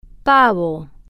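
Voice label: "pavo", slow, spanish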